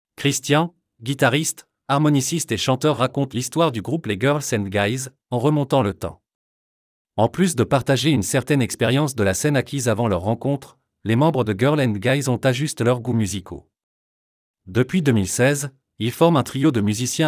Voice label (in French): voix off